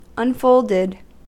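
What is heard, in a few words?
"unfolded", US English; pronunciations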